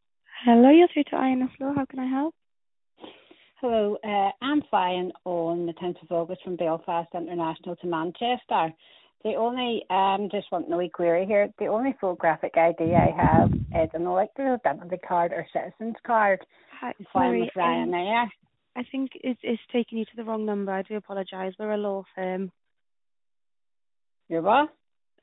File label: call recording